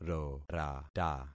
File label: "rorata", concat syllables; Affixing experiment